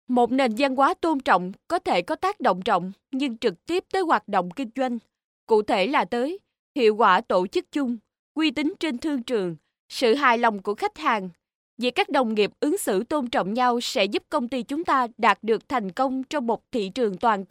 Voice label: vietnamese voices vietnamese female

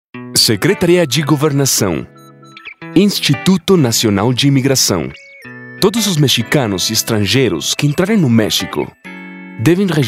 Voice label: latin spanish male portuguese

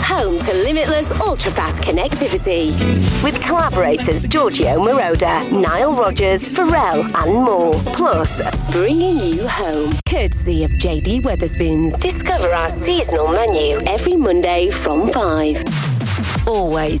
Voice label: radio imaging

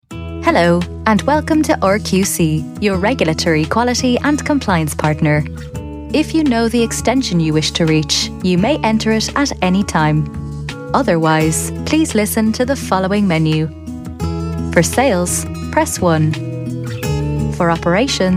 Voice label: irish voice over, telephone

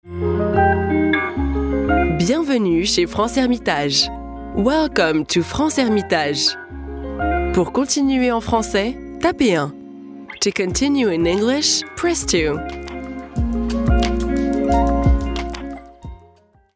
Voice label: french campaign voice telephone

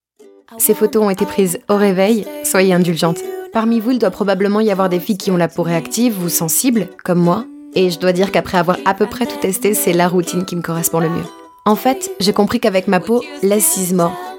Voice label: french voice natural

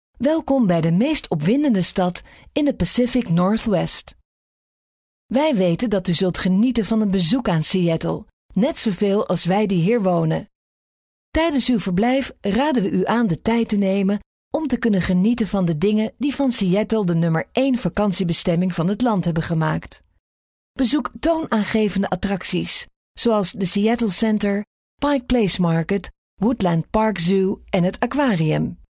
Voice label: dutch female audio tour

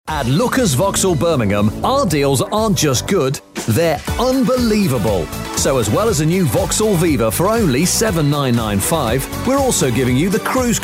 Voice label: commercials

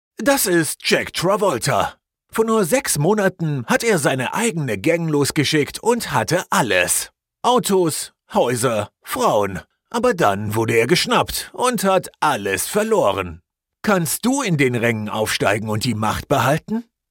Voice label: german voice trailer voice

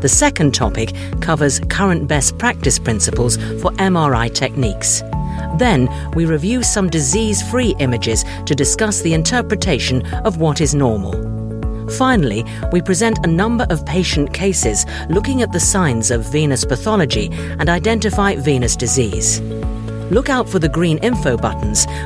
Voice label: e-learning

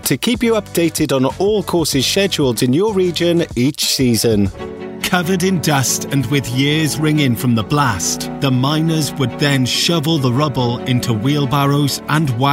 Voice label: e-learning

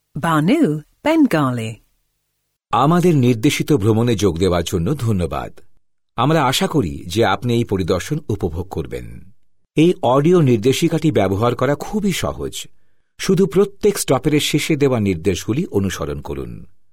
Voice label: bengali

